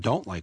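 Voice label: "doOt like", American English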